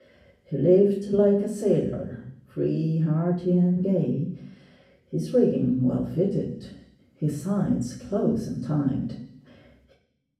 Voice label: room reverb; remove echo from audio